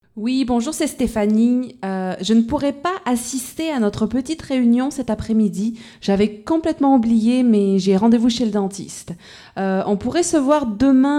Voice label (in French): Message RDV dentiste